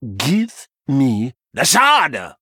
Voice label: Male Troll; Kazakus; Kurtrus